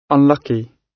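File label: "unlucky", British English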